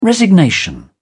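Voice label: "resignation", British English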